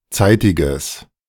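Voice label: "zeitiges", German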